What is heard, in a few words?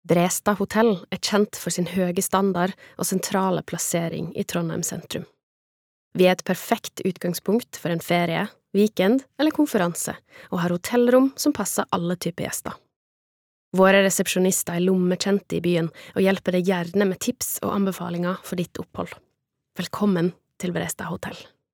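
Norwegian informative, kvinne; norsk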